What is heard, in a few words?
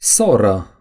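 "sister", Romanian; gcompris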